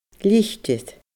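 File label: "litir", Scottish Gaelic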